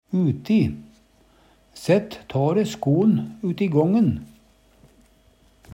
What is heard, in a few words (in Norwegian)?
uti, Numedalsmål; Preposisjon